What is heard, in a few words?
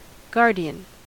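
"guardian", American English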